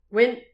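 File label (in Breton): "gwevn", Breton; petit precis de prononciation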